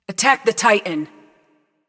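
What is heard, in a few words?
attack, male; smite; bellona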